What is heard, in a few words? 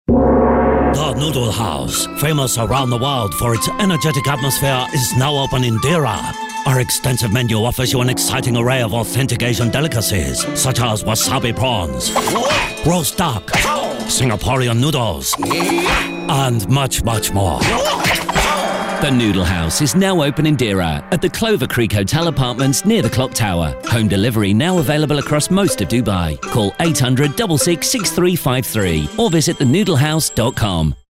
chinese